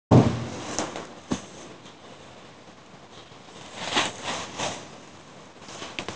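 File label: "a1", Mandarin Chinese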